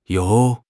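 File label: "you2", Mandarin Chinese; HSK4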